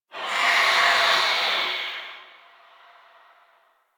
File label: Whisper Pain; Enemies; Stalker Acolyte